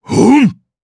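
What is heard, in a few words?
Vox Casting, Japanese; kingsraid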